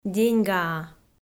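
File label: "деньгаа", Komi, female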